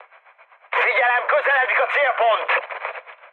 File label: near waypoint, mood high, speech hun; War Thunder